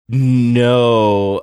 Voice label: drawn out no